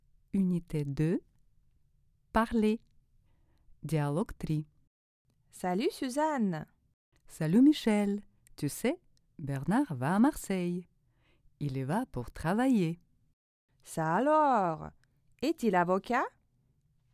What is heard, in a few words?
dialog